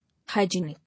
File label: "Hygienic", English